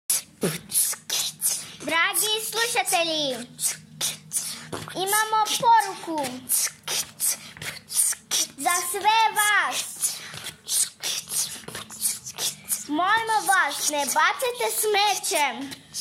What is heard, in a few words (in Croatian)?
reklama